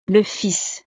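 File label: "le fils", French; familia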